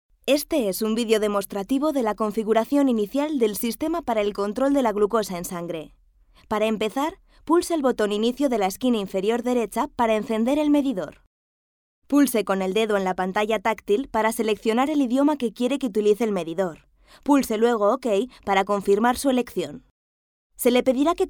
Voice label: Elearning